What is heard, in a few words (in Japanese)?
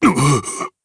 Damage, jp; Dakaris; kingsraid